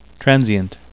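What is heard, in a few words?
"transient", English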